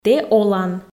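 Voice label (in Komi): Komi, тэ олан, female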